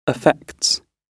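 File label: "affects", British English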